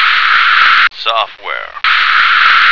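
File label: "software", American English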